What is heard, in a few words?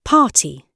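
"party", British English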